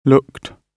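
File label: "looked", British English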